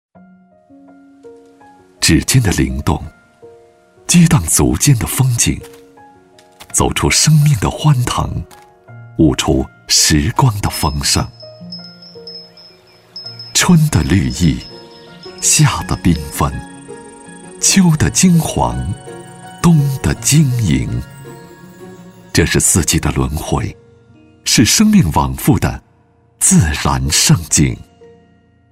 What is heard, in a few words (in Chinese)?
男 台宣 节目片头